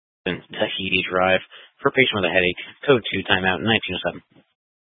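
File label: Fire Dispatch, scanner audio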